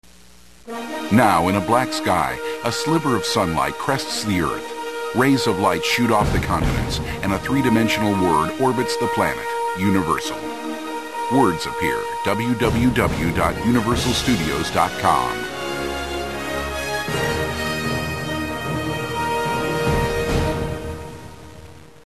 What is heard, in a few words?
audio desc